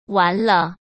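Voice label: "完了", Mandarin Chinese; chinese flashcards; hsk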